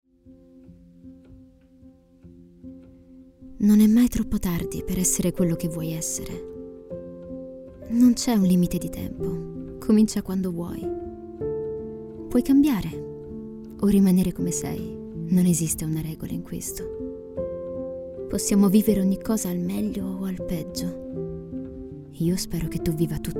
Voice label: naked narrative